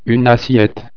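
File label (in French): "assiette", French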